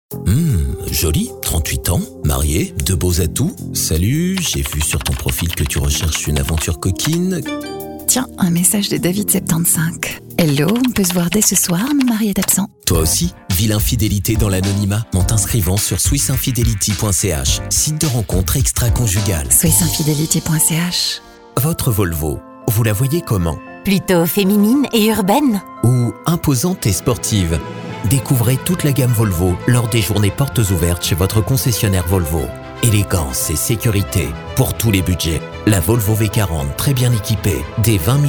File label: french others